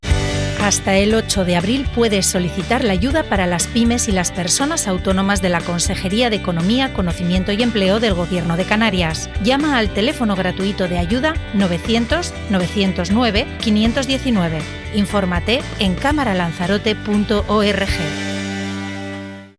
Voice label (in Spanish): CUÑA; AYUDAS PYMES GOB. CANARIAS